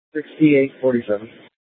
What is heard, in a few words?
Sheriff, scanner P25; Shrf Lakes